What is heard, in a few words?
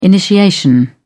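"initiation", British English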